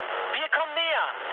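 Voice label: German near waypoint mood med, speech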